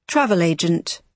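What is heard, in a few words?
"travel agent", British English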